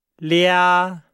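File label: "lia", Chinese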